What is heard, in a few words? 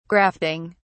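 "grafting", English, British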